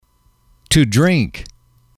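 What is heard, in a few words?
"to drink", English, male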